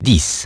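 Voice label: "ten", English; iOS 4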